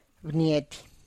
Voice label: "bniety", person